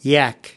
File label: "yak", us